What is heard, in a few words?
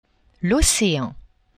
"ocean", French; geographie